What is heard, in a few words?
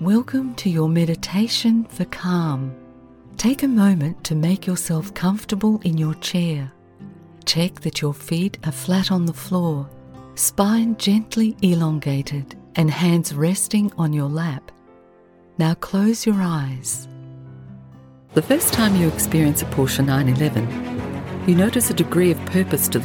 Presenter Documentary Film Narration Commercial